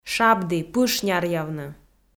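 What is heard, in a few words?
"шабді, пыш няръявны", Komi, female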